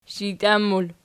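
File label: shijtian plural, Archi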